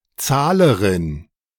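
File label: "Zahlerin", German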